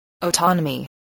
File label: "autonomy", english